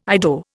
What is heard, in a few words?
"Ido", Indian